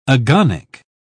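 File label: "agonic", British English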